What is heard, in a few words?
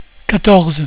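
"quatorze", French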